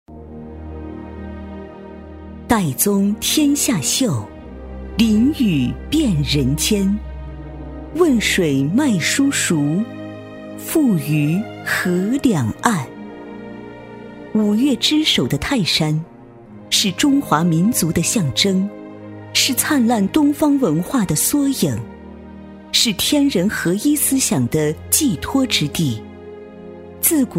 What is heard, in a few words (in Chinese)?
女 党建专题; 泰安市水利局; 沉稳大气